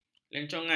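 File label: "lencongan", Malay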